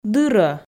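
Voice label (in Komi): "дыр-ӧ", Komi, female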